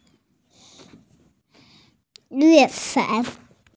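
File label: "mian4 fen3", Mandarin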